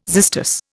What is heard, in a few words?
"xystus", Indian